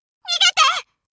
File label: run away, voice commands Anime Loli; svencoop